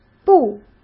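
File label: "步", Mandarin Chinese; hsk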